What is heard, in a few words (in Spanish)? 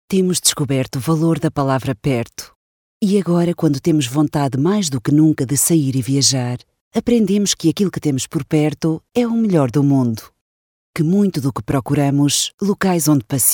PT voz femenina media